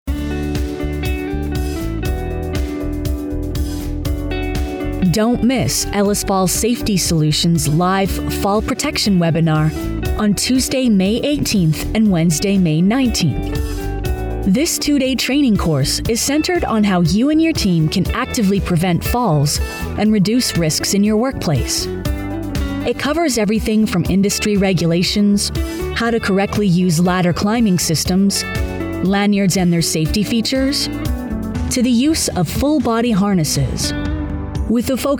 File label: Commercial; Ellis Fall Safety Solutions